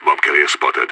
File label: bomber spotted, radio; SAS